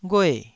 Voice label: "ghue4", Taiwanese syllable